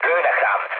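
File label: Hungarian aircraft damaged mood low, speech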